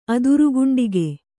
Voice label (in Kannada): "ಅದುರುಗುಂಡಿಗೆ", Kannada